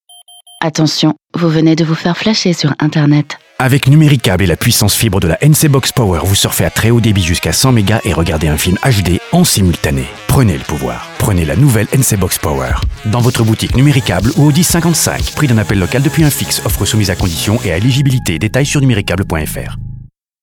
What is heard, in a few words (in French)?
RADIO Dynamique viril droit; Bourgogne; NUMERICABLE